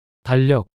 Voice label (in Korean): "달력" 단어 남자